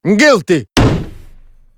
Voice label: guilty

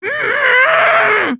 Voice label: angry; teruteru

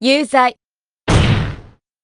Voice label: guilty

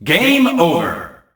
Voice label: "game over", announcer; sonic; colors

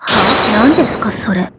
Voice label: despair, jp; dr2

